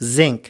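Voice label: "zinc", American English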